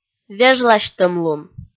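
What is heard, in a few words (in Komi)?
"вежласьтӧмлун", Komi, female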